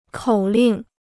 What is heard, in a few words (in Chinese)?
"口令", Chinese